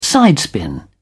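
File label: "sidespin", British English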